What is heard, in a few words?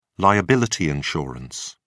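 "liability insurance", British English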